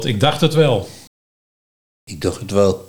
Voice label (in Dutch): "Dochut wel", Nieuw Lekkerlands dialect; dacht het wel